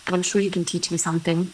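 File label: voice acting, es, female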